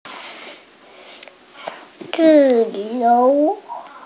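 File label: "zi4you2", Mandarin Chinese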